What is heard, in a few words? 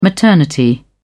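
"maternity", British English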